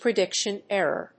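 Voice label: "prediction-error", English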